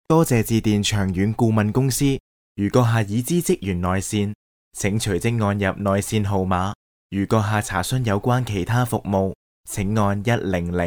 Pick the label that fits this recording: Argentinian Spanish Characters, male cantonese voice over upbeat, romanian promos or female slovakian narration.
male cantonese voice over upbeat